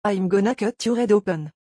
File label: im gonna cut your head open, french accent